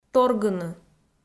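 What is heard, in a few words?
"торгыны", Komi, female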